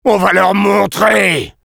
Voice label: battle cry, fr; Sniper